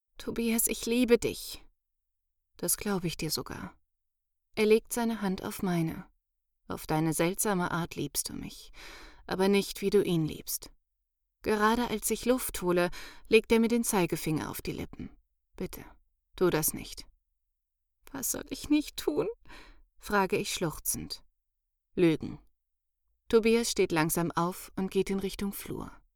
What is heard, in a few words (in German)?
Dialog Lyrik Erzähltext